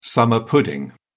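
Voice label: "summer pudding", British English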